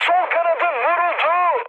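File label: Turkish left wing, mood high, speech; War Thunder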